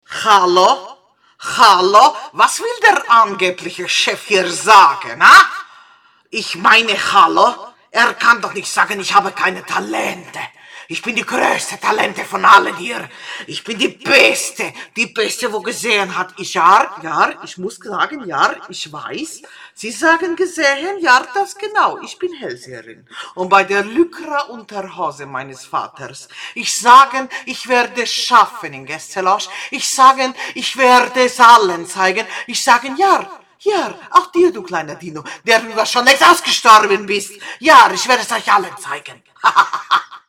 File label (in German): Hoerspiel Wahrsagerin; Casting Room; Das Hörspiel "Wahrsagerin"; Chaotika/Casting Room